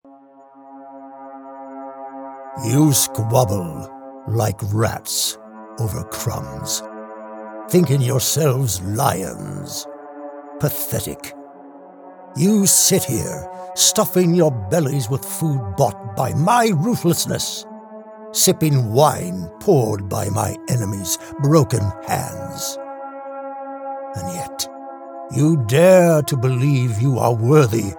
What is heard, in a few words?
Evil Characters